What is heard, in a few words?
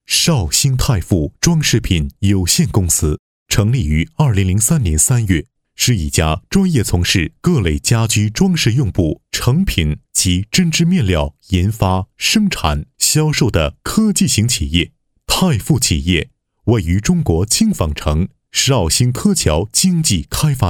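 Chinese Mandarin, male, narration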